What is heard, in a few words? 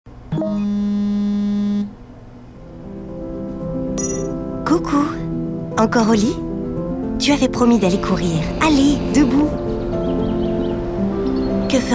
advertising, FRENCH FEMALE